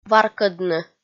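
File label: "варкӧдны", Komi, female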